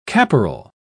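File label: "caporal", English, British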